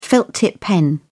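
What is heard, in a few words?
"felt tip", British English, dictionary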